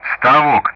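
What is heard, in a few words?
Speech Russian; Common Player Callsign Stavok; DCS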